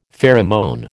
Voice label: "pheromone", American

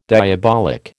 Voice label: "diabolic", American

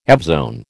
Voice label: "evzone", American

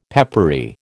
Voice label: "peppery", American

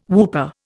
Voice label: "whooper", Indian

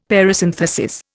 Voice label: "parasynthesis", Indian; Dictionary

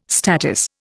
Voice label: "statice", Indian

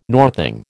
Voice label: "northing", American

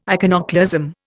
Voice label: "iconoclasm", Indian

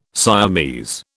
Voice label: "Siamese", British

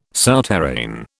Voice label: "souterrain", British

